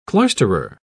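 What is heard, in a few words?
"cloisterer", British English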